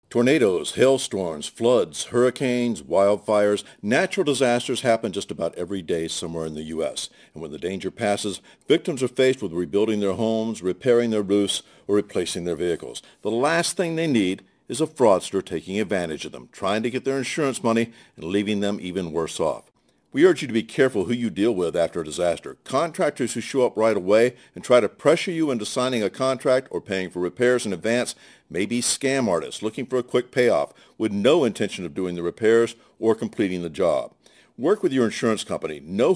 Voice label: Radio; NICB; Contractor

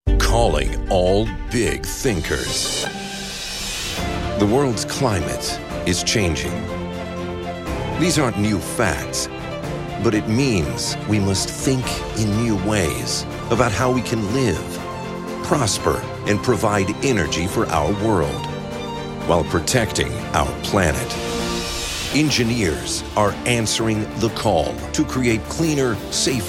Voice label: RADIO

